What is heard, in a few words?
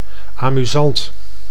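"amusant", Dutch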